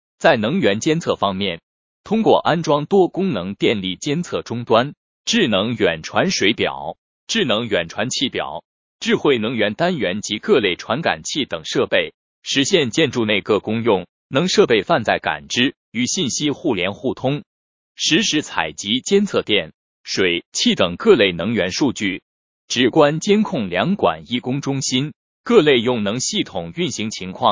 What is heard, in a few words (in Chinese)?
配音